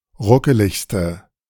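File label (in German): "ruckeligste", German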